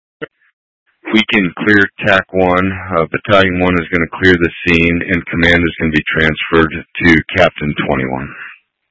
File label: Incident Tac 1, scanner